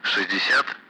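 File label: Speech, Russian, Numbers, 60; Allied Flight; DCS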